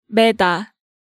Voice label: "Maeda", Hangeul sounds; DigitalSoju

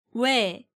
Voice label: "Wae", Korean

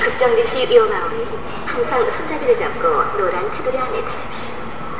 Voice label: Escalator message, Korean

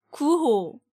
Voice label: "Guho", Korean

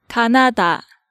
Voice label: "Ganada", Korean

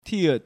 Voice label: "Tieut", Korean

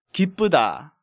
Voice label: "Gibbeuda", Korean

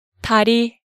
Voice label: "Dari", Hangeul sounds; DigitalSoju

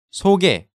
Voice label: "soge", Korean

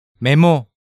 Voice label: "Memo", Hangeul sounds; DigitalSoju

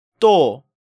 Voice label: "Ddo", Korean

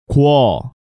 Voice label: "Gwo", Korean

